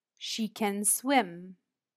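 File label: "She can swim", English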